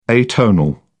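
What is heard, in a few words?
"atonal", English, uk British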